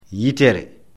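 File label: "yitere", Fula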